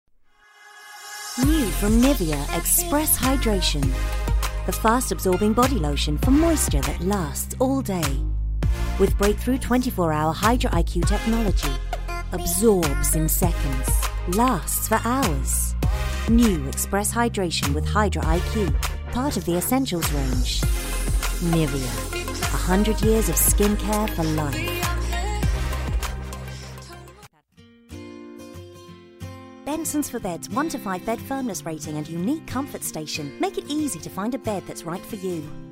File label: english female voices british accent